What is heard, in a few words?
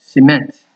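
"cement", Nigerian Pidgin, male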